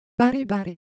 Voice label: Japanese Sound

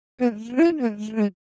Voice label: Korean, Ideo Phones; Motion

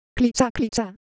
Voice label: Ewe Texture, ideophones

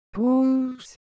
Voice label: Semai, ideophones

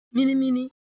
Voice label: Siwu Shape

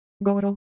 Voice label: Ewe, ideophones; Shape

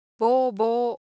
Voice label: Japanese, Ideo Phones

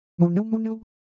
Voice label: Ewe Motion, Ideo Phones

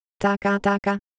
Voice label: Ewe Motion, IdeoPhones

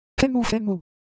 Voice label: Japanese Motion, Ideo Phones

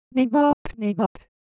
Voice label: Korean, Ideo Phones; Texture

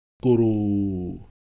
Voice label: Ewe Shape, ideophones

